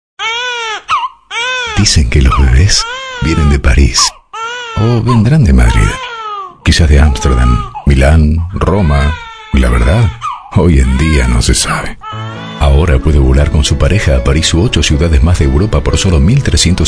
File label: british